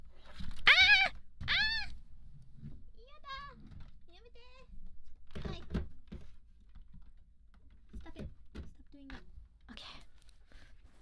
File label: struggling far away; IRyScream